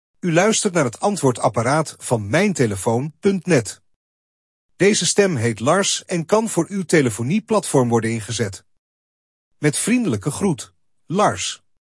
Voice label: Voice Prompts, NL; Voiceprompt voorbeeld